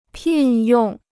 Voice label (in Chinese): "聘用", Chinese